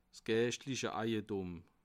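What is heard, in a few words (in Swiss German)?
"eijetum", Bas Rhin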